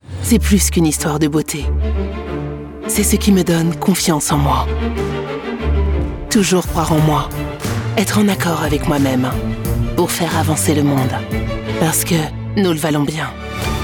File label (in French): PUB TV; english